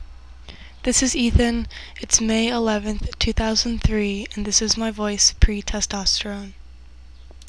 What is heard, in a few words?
Pre T, testosterone, voiceclips; transition